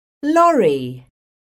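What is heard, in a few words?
"LORRY", English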